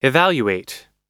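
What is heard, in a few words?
"evaluate", US English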